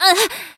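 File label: Voice damaged Japanese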